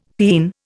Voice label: "peen", Indian; Dictionary